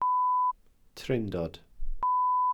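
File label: "trindod", Welsh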